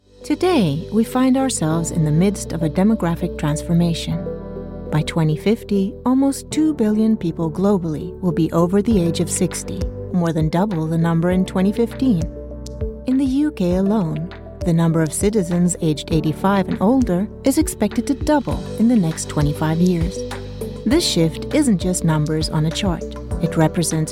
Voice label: english warm and caring

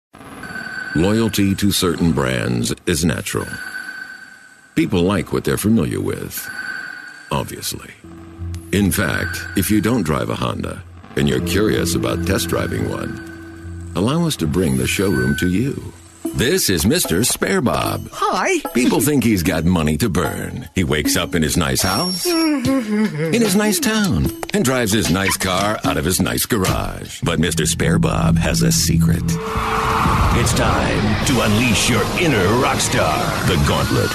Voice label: deep american commercial

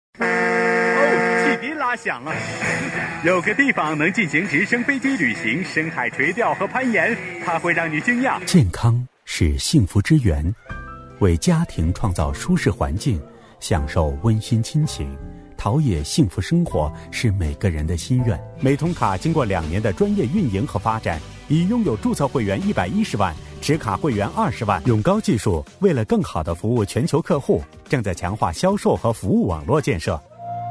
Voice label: commercials

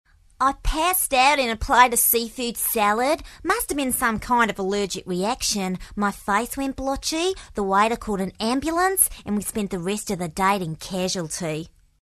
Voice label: australian accent

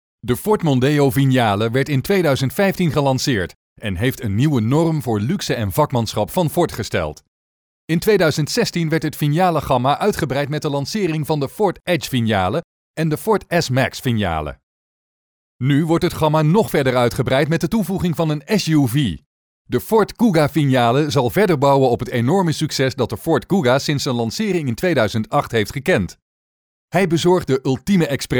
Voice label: dutch voice marketing

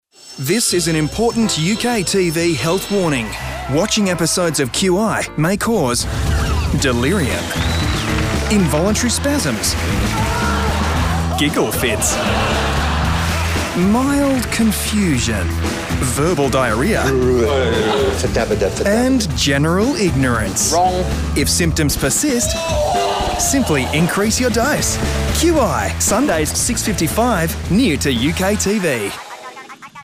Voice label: australian male promo

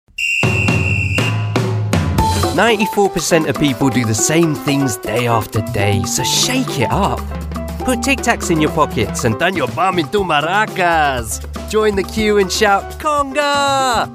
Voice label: english male commercials

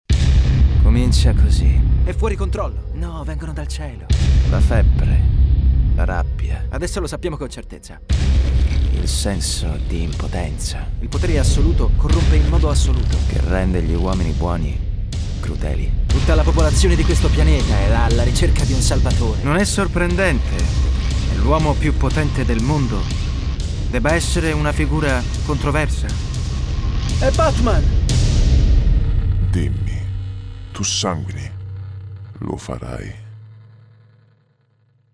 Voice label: italian male movie trailer

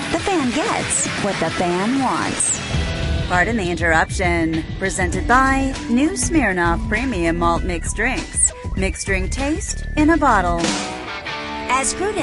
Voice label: american sports promos

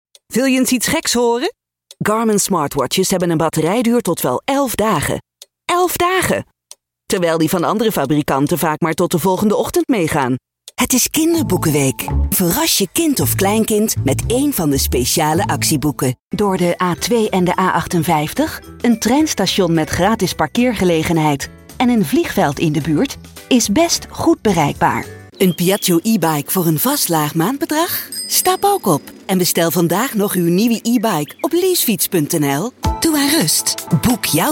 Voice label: dutch female commercial

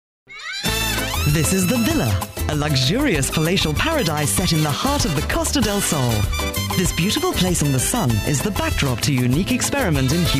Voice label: fun documentary

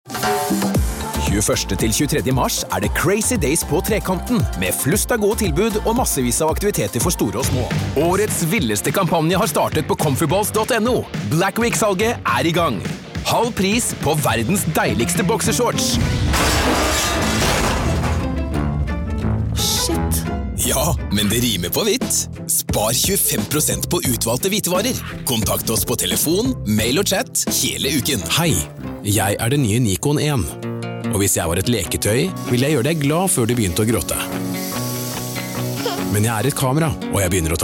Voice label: norwegian voice commercial

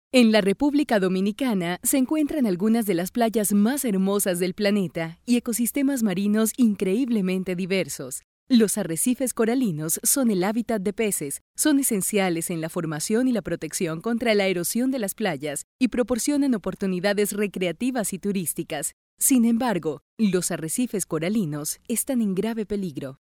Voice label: latin spanish female narration

